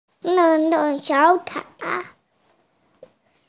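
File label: "nen4", Mandarin Chinese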